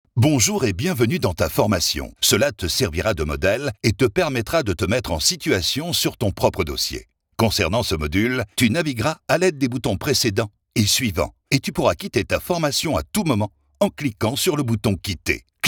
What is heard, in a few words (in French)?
e-learning